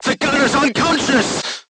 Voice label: voice message gunlayer contused, crew tanks vietnamese